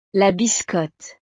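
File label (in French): "la biscotte", French; desayuno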